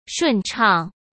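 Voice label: "顺畅", Mandarin Chinese; hsk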